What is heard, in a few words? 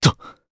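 Vox Landing, Japanese; kingsraid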